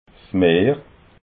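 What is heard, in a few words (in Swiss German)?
"meer", Alsatian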